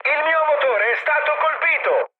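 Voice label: Italian damaged engine mood high, speech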